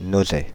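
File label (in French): "Nozay" French, Paris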